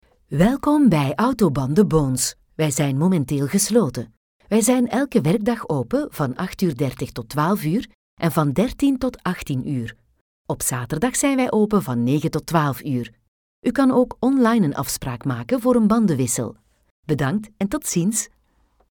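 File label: Flemish, female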